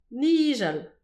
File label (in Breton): "nijal", distagadur; petit precis de prononciation